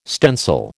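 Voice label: "stencil", American English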